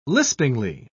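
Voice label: "lispingly", British English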